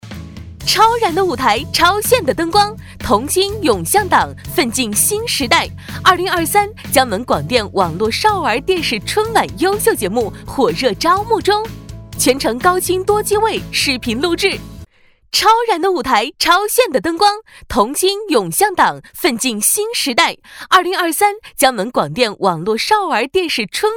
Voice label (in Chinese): 女 广告 超燃的舞台